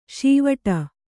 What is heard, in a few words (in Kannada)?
"ಷೀವಟ", Kannada